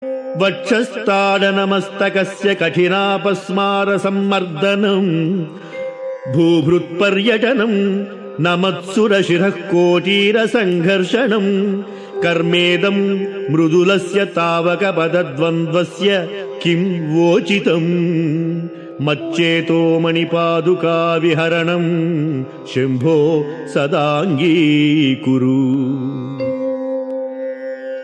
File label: Sanskrit; Sri Adi Sankaracharyas Sivananda Lahari; Adi Shankaracharya; श्रीशिवानन्दलहरी ६४